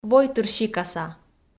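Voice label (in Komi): "войтырсикаса", Komi, female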